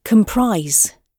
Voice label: "comprise", gb